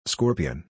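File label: "Scorpion", English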